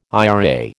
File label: "IRA", American